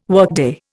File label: "workday", Indian